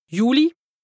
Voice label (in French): "Jüli", lexique indispensable haut rhin, Alsace; juillet; Saint-Louis